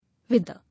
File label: "Wither", English